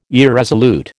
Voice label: "irresolute", American

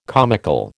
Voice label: "comical", American

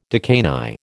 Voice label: "decani", American

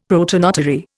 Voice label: "protonotary", Indian; Dictionary

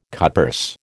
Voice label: "cutpurse", American

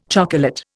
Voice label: "chocolate", Indian

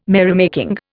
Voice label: "merrymaking", Indian

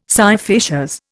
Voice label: "scire facias", Indian

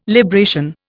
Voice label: "libration", Indian

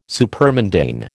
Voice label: "supermundane", American

